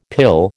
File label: "pill", American